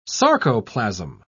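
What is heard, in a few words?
"sarcoplasm", British English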